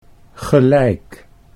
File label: "gelijk", Dutch